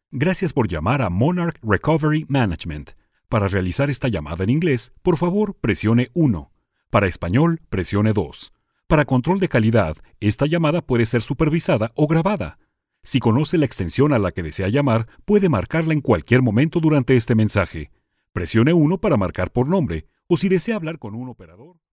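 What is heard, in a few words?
Spanish Americas, male, voice over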